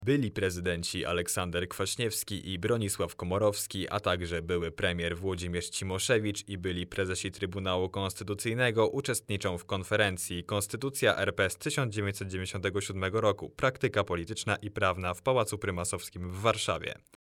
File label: News